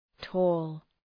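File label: "tall", English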